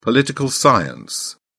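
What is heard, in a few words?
"political science", British English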